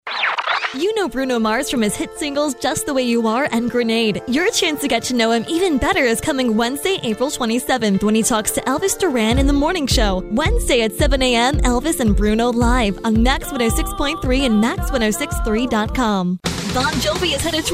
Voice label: Promo; Promo Demo